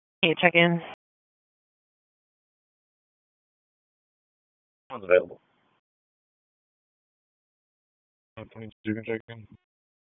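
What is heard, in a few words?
Raleigh Police Southeast District Dispatch; wake p25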